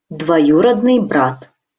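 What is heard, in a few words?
"dvojurodnyj brat", Russian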